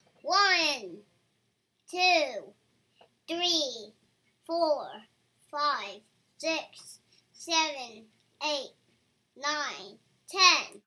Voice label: 4 Year Old Counting To 10